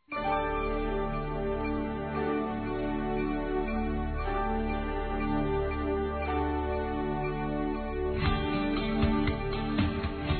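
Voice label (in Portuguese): LEVANTAR ASTRAL, masculino; Telemensagens; Telemensagens 2017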